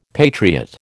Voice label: "patriot", American